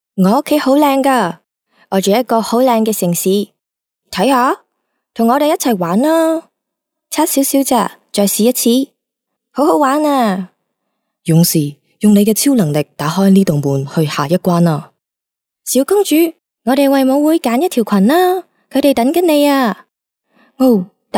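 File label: Cantonese female children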